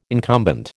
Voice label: "incumbent", American